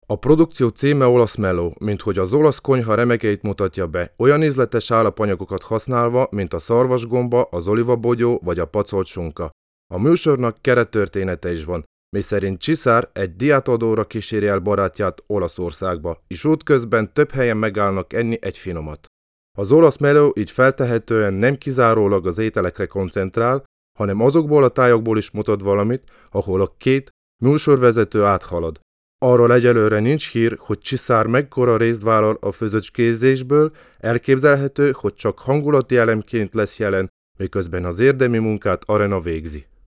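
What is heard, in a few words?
hungarian male narration; voice demos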